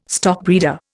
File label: "stockbreeder", Indian